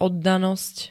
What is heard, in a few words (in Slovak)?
"oddanosť", slovenské